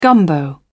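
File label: "gumbo", British English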